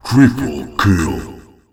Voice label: Triple Kill, announcer; skullball